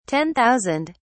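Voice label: "ten thousand", British English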